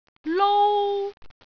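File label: "lou1", Cantonese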